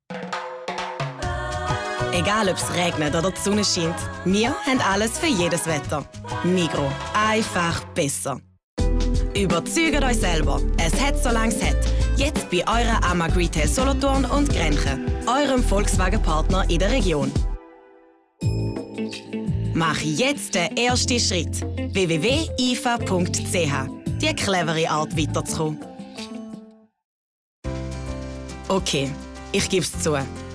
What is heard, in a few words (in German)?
Medley Schweizerdeutsch; LOFT VOICES